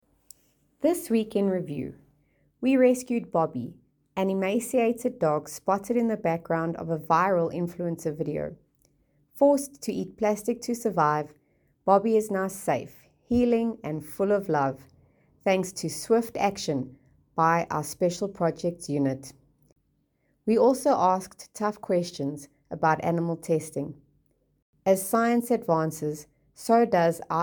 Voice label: voicenote; NSPCA's Week in Review